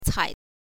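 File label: "cai", Mandarin Chinese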